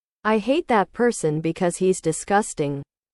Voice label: english